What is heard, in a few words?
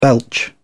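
"belch", British English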